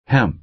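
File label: "hemp", American English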